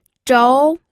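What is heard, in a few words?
"zhou2", Mandarin Chinese pinyin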